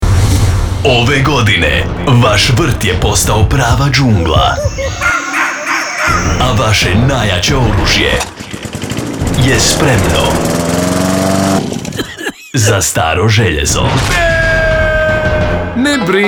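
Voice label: Croatian, general; GoLocalise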